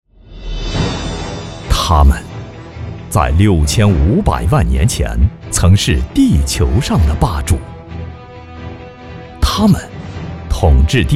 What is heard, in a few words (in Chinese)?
男 短片 宣传